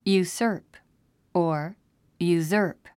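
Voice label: "usurp", English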